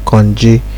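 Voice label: "konji", Nigerian Pidgin, male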